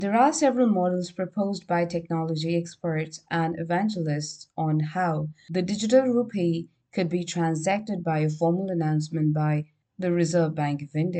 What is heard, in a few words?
english showreel